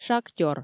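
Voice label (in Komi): "шактёр", Komi, female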